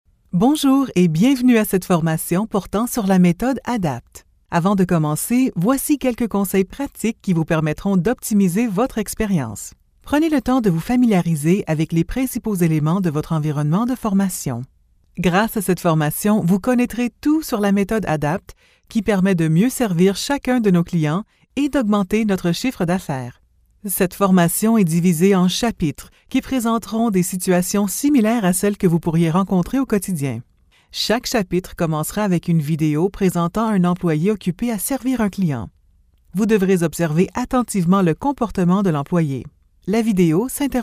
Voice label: e-learning French